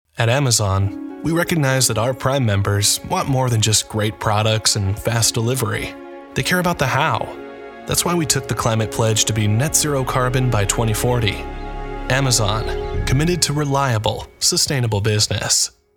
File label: Corporate Narration; Amazon